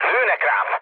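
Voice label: Hungarian aircraft damaged mood low, speech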